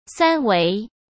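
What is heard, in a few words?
"三维", Mandarin Chinese; chinese-flashcards; hsk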